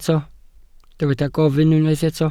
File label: "gofyn", Welsh